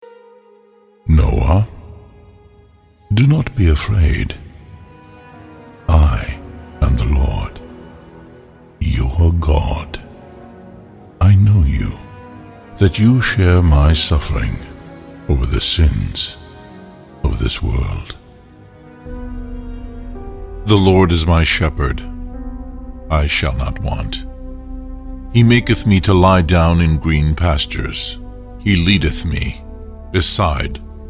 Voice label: Christian faith, Deep Male Voice, Christian Faith Based Reads